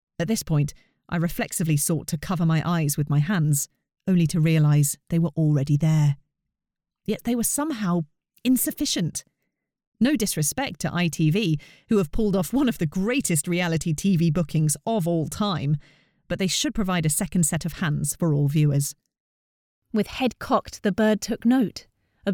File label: Drama Narrative